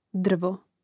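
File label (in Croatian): "drvo", hrvatski; mreznik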